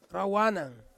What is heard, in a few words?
"rawanang", Ansus; sea